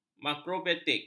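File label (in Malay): "makrobiotik", Malay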